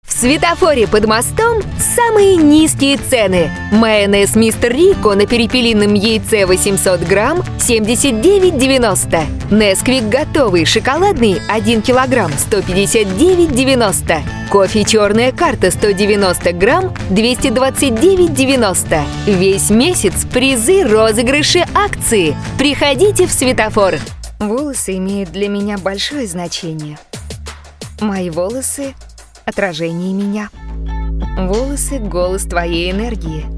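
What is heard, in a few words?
Russian, female, commercial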